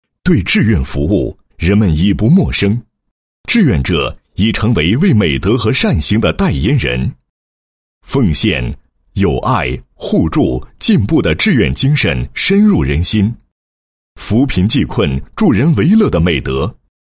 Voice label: 男 专题, 敬老志愿者联盟简介

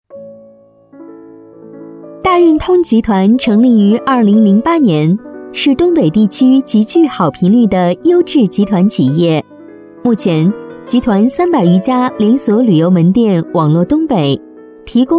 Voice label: 女 专题 甜美旅游解说

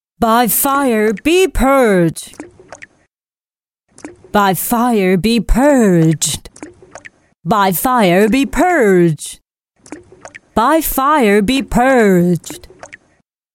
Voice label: 英女; 烈焰之心

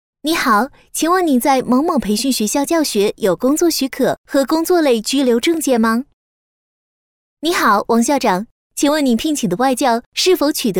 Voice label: 女, 人物篇, 普通女声

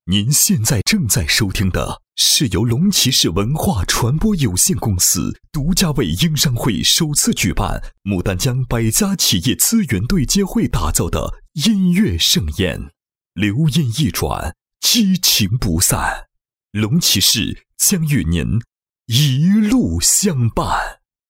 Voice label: 男 音乐会赞助商 宣传, 国语男声配音; 龙骑士

